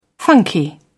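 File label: "funky", British English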